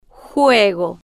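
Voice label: "juego", spanish, slow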